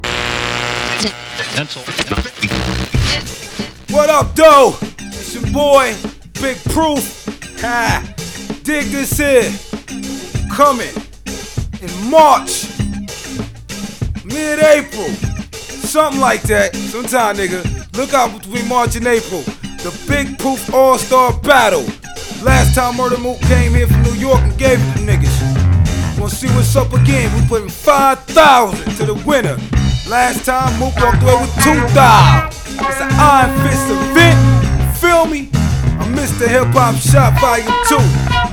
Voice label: Commercial Break